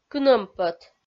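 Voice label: "кынӧмпӧт", Komi, female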